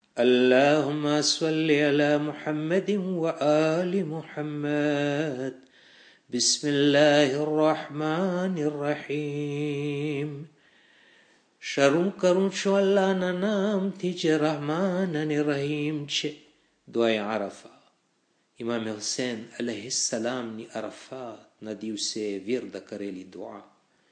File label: Dua Gujrati; Arafat